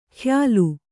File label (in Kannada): "ಖಯಾಲು", kannada